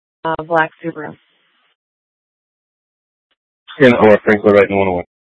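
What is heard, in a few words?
police dispatch, Scottsdale PD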